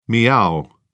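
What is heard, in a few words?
"miaow", British English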